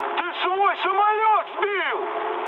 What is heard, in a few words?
ru player killed ally mood med; War Thunder